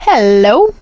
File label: scenario join, survivor voice; source engine; left 4 dead 2; producer